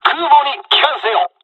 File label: flyaway, dialogs japanese; second wave; War Thunder